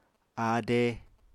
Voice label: "áade", Apatani